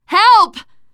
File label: help, teengirl, voice